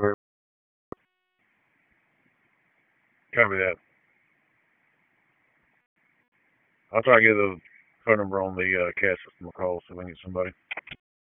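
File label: FIRE Operations, p25; FIRE OPS 2; wake p25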